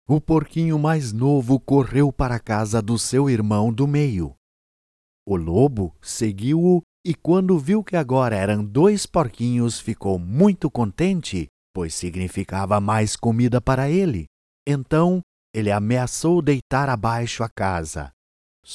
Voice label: children audiobook